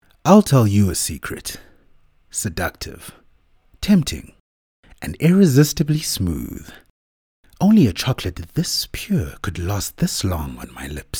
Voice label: English Intimate; OSM Talent

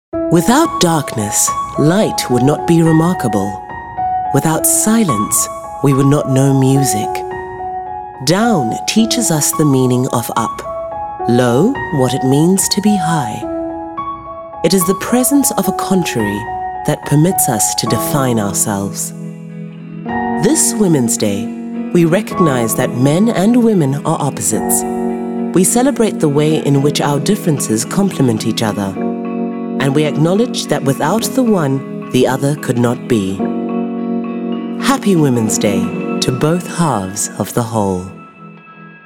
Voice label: English Soft Sell; OSM Talent

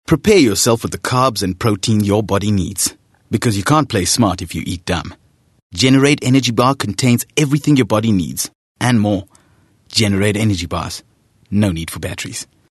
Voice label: English Softsell

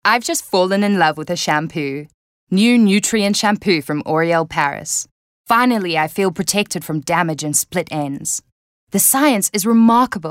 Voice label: English Softsell

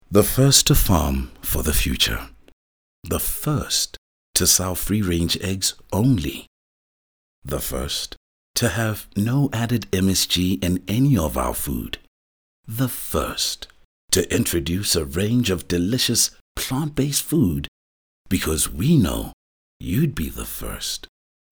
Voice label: English Soft Sell; OSM Talent

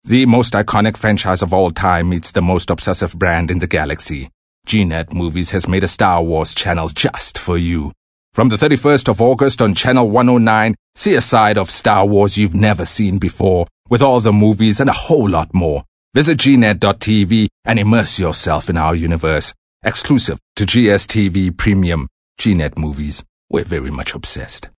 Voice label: English Skill Promo

